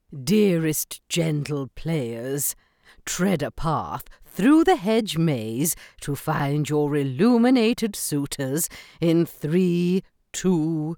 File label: english british impersonations